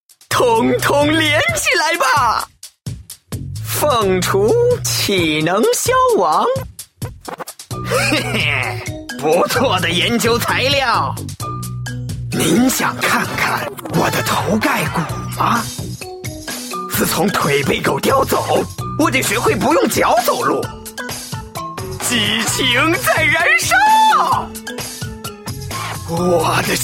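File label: male other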